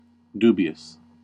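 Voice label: "dubious", US English; pronunciation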